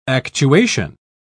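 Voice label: "actuation", English, British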